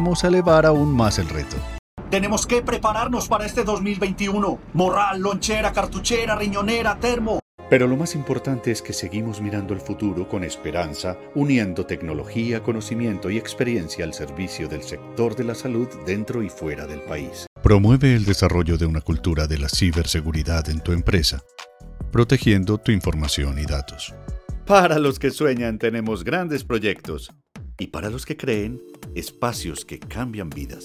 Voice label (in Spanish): locutor colombiano